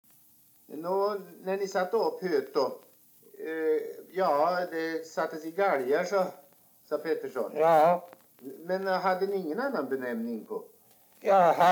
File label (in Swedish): dialekter; Folkminnen; Svenska sagor